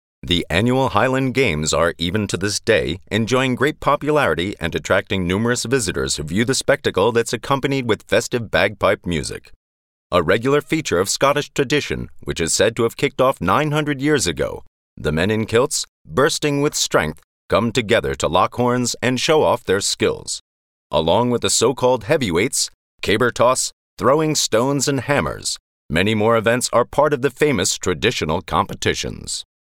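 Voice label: American English, Neutral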